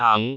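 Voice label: "aang2", Cantonese; google B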